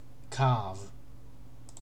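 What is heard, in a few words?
"carve", British English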